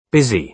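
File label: "busy", British English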